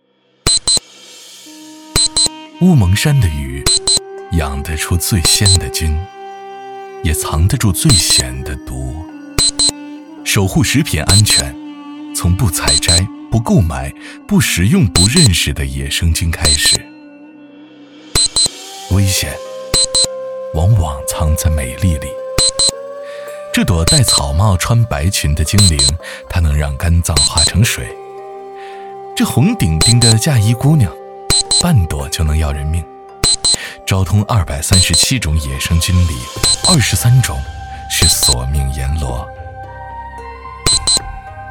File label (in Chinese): 男 低沉纪录片